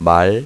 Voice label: horse, kor; My Animated Word Book; farm